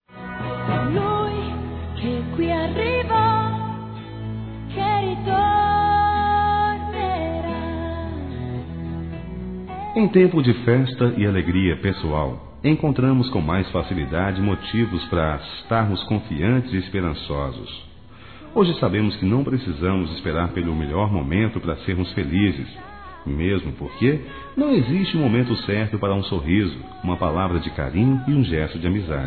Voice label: ANO NOVO NEUTRO M; Telemensagens; Natal e Ano novo; masc

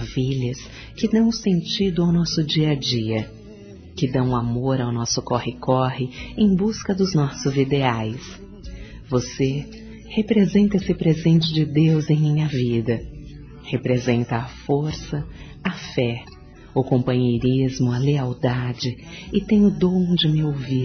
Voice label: DESCULPE AMIZADE F, Telemensagens; Desculpas; fem; Telemensagens 2017

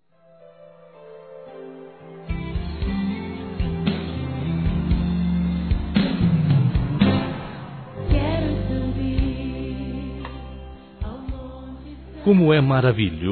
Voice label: Geral Masculino; Dia das Mães; Telemensagens 2017

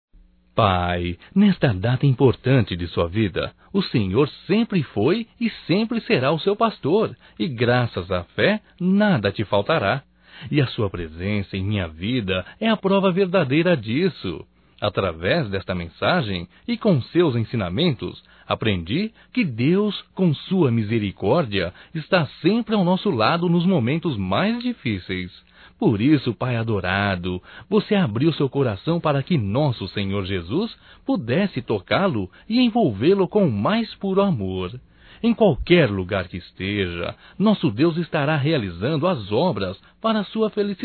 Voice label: Aniversário Pai Evangélico Masculino; Aniversarios; Telemensagens 2017

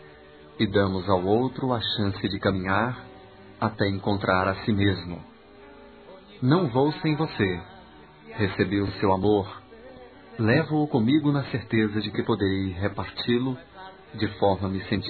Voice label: ESTOU SAINDO DE SUA VIDA, masc; Telemensagens 2017

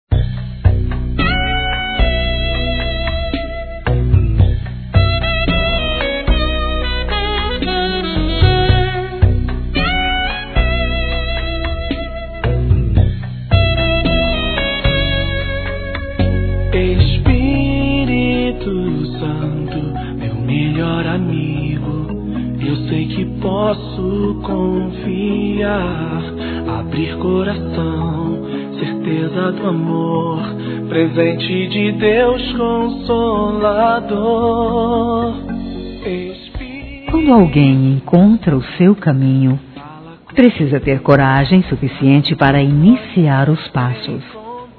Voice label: conquista f, Telemensagens Gospel fem; Telemensagens 2017

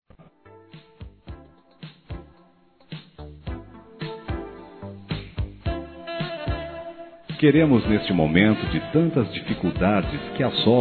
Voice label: ATRAZO DE PAGAMENTO, masc; Empresarial; Telemensagens 2017

